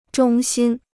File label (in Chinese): "衷心", Chinese